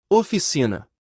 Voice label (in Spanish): Oficina en portugues